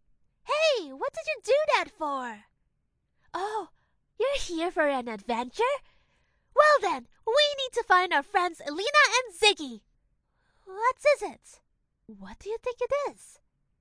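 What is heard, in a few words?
Chinese, character, accent English; GoLocalise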